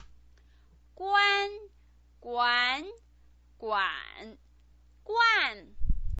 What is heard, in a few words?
"guan", Chinese pinyin